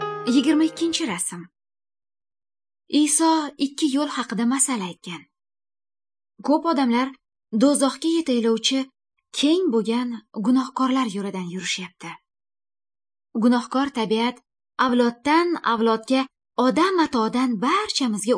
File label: good news female uzbek northern